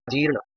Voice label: "jirna", Sanskrit

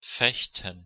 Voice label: "fehtan", Proto-Germanic

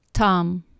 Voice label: "tam", Anglo Saxon

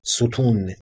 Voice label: "sutuun", Persian

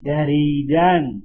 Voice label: "daridan", Persian

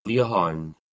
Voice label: "lihan", Old Saxon

